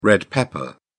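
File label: "red pepper", English, British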